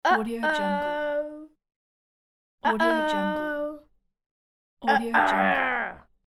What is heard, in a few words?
boy uh oh